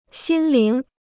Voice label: "心灵", Chinese